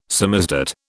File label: "samizdat", British